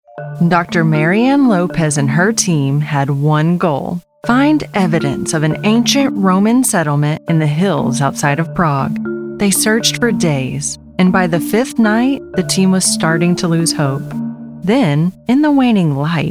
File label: Narration